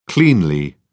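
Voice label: "cleanly", English, uk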